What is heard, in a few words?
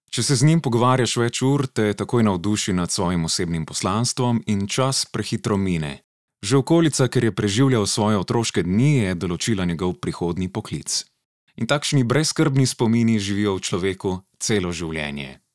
slovenian narration